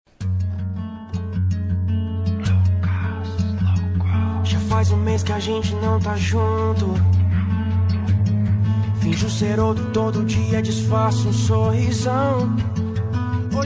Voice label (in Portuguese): dia dos namorados amante, voz feminina; dia dos namorados amantes